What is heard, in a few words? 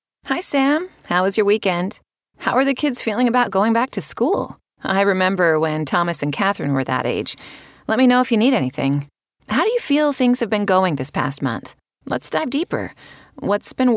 Conversational